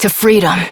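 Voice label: Adult, English; New War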